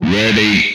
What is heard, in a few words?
"Ready", Speech; retro arcade